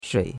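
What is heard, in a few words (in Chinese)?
"shui3" Chinese; Cours de Chinois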